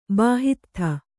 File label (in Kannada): "ಬಾಹಿತ್ಥ", Kannada